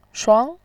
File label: "shuang2", voice